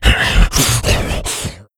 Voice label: pain; nuke; redead